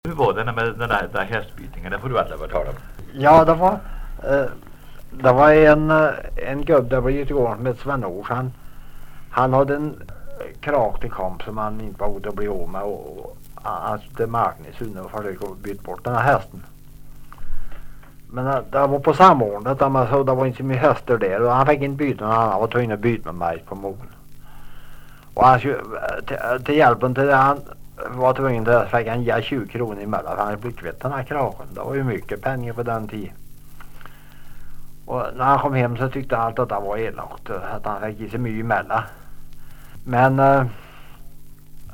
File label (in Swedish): Fryksdals Sunne, dialektkartan